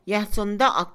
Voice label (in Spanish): empezar, Mixe, Mujer; INALI